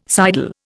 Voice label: "sidle", Indian